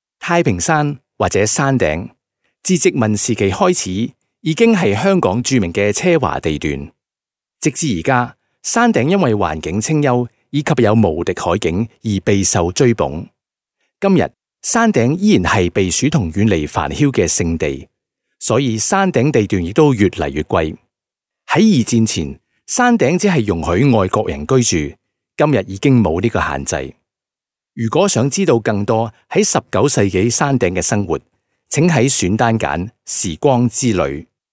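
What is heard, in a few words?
Cantonese male corporate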